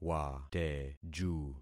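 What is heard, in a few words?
"wateju", concatenated syllables; Affixing experiment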